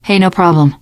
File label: you are welcome, teen girl; source engine; left 4 dead 2; survivor